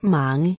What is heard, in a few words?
"mang", Proto-Germanic